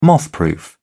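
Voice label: "mothproof", British English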